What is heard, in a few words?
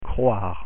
"croire", French pronunciation